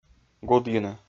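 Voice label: "гудйыны", Komi-Zyrian, male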